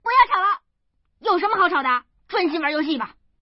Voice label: woman; guizhou